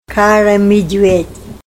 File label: brown bear, Ninilchik Russian